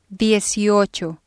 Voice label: "dieciocho", spanish

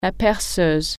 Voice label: "drill", french

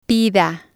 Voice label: "pida", spanish

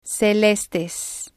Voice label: "celestes", spanish

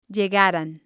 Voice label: "llegaran", spanish

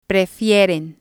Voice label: "prefieren", spanish

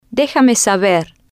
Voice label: "Déjame Saber", spanish; Music

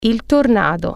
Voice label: tornado, italian

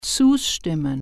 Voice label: agree, german

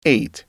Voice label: "eight", English

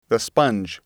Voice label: "sponge", English

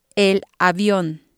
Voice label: airplane, spanish

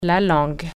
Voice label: wave, french

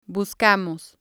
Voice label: "buscamos", spanish

